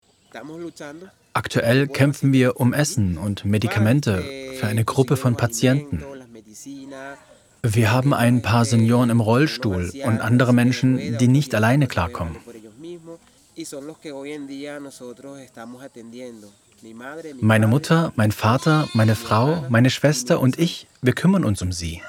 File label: Voice Over; Weise Alpargatas